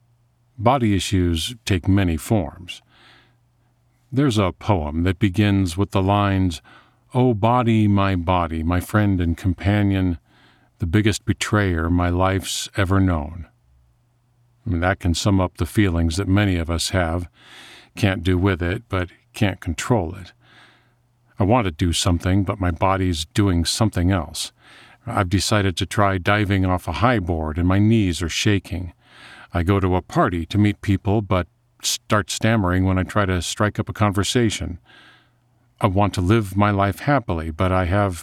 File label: Self Help, audiobook